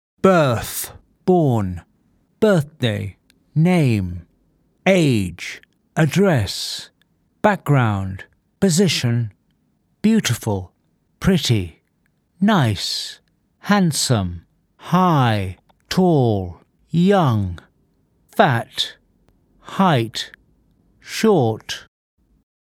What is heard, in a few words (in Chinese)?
外籍男英 外语 英式英语 单词 常速